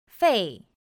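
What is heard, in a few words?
"fèi", Chinese; pinyin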